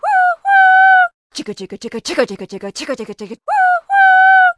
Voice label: Human Female Choo Choo